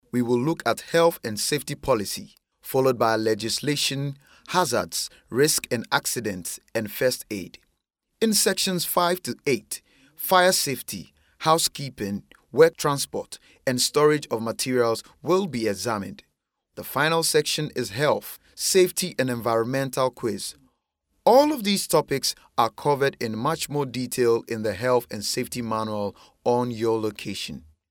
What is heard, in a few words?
male african voice over narrative